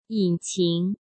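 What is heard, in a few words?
"引擎", Mandarin Chinese; chinese flashcards; hsk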